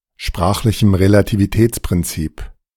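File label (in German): "sprachlichem Relativitätsprinzip", German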